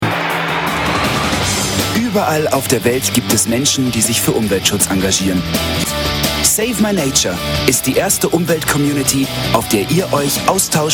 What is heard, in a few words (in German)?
werbung